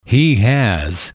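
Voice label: "he has", English, male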